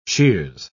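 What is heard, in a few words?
"sheers", British English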